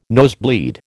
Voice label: "nosebleed", American

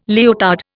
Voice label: "leotard", Indian

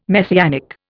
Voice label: "Messianic", Indian

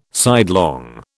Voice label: "sidelong", British

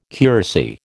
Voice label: "curacy", American

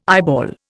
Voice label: "eyeball", Indian

